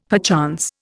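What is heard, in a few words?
"perchance", Indian English; Dictionary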